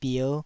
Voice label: "bier5", taiwanese Syllables